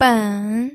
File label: "ben3", pinyin